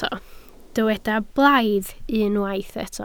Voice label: "blaidd", Welsh

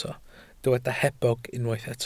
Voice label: "hebog", Welsh

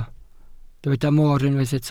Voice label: "moor", Welsh

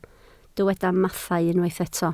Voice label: "mathau", Welsh

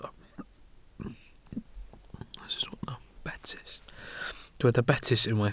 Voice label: "betys", Welsh

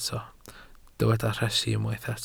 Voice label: "rhesi", Welsh

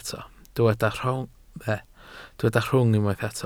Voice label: "rhwng", Welsh

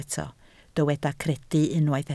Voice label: "credu", Welsh

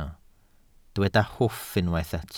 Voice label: "hwff" Welsh

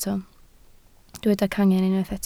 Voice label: "cangen", Welsh

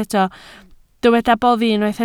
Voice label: "boddi", Welsh

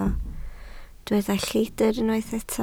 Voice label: "lleidr", Welsh

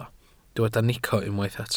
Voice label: "nico", Welsh

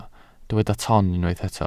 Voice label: "ton", Welsh

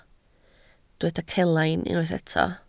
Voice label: "celain", Welsh

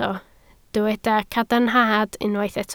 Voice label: "cadarnhad" Welsh

